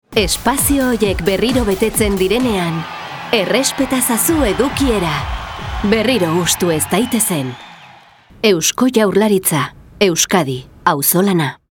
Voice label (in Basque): RADIO EUSKERA